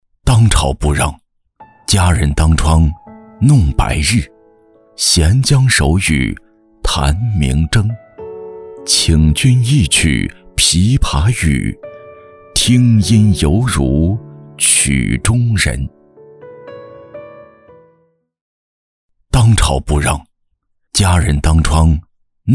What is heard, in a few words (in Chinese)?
男 宣传片 茶栈